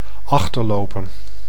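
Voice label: "achterlopen", Dutch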